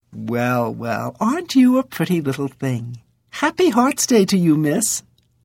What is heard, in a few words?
Holiday Hello High Elf Female; Unused